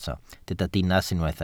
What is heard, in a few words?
"dinas", welsh